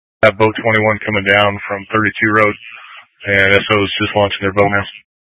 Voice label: Incident Tac, scanner; Incident Tac 1